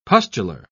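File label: "pustular", British English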